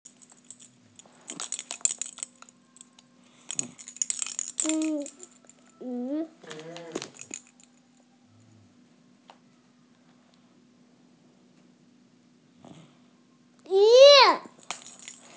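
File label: "jin1 yu2", Mandarin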